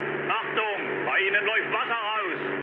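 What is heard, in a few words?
German damaged water mood med, speech; War Thunder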